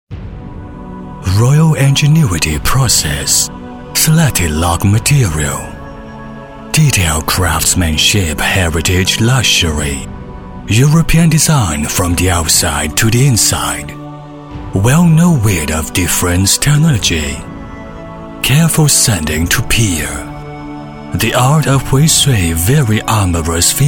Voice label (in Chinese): 男英 外语 国内英语 皇家匠心英文 厚重